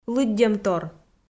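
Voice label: "лыддьӧмтор", Komi, female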